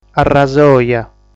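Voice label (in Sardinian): "Arrasoja", Sardinian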